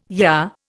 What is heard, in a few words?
"yah", Indian